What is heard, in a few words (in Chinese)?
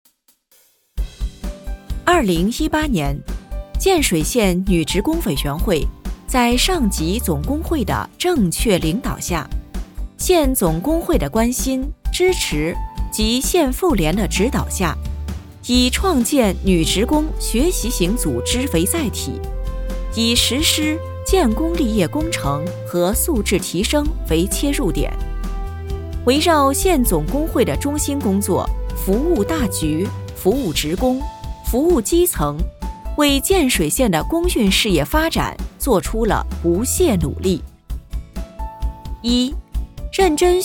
专题 工作汇报